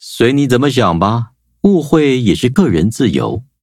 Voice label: loading, zh-hant; Cookie Run

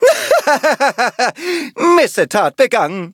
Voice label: victory, de

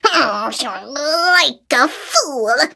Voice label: defeat, en; cookierun

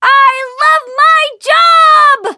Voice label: level up, en; cookie run

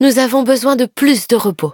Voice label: defeat, fr; cookie run

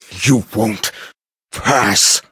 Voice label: hit, en; cookierunwiki

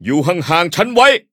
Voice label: level up, th; Cookie Run

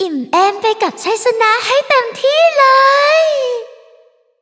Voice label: victory, th; cookie run

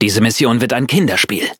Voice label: loading, de; cookierun

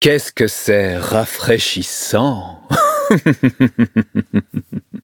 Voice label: levelup fr

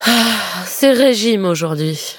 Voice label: defeat, fr; cookie run

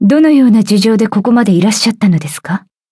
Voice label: upgrade, ja; cookie run

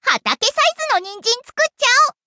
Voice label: upgrade, ja; cookie run

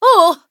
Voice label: hit, en; Cookie Run

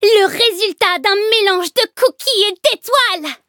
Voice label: levelup fr

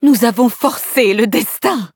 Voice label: victory, fr

